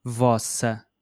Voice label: "vossa", portuguese

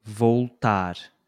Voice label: "voltar", portuguese

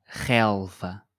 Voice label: "relva", portuguese

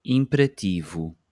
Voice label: "imperativo", Portuguese

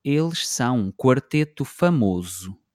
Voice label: "eles são um quarteto famoso", Portuguese

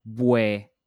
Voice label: "bué", Portuguese

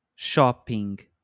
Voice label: "shopping", portuguese

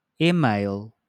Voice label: "e-mail", Portuguese

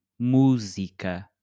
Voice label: "música", portuguese

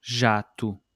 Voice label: "jato", portuguese

